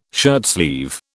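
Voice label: "shirtsleeve", British English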